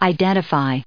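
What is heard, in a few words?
"identifying", US English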